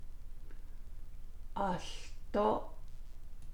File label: navajo; too also